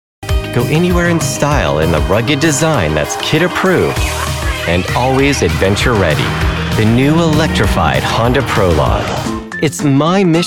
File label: Commercials, Voice Over